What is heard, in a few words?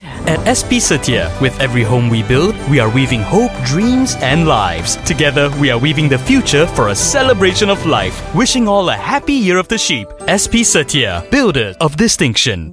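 Announcer English; SP Setia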